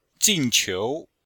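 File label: "jin4qiu2", Chinese; Cours de Chinois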